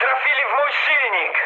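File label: damaged engine, mood med, Polish speech; War Thunder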